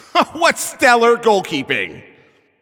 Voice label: Announcer Goalie Save